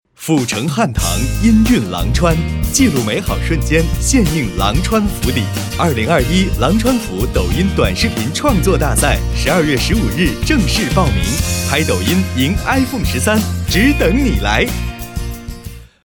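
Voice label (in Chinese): 广告年轻激情; 广告抖音创作大赛年轻激情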